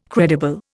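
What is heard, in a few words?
"credible", Indian English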